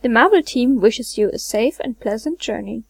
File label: American English; marble; kde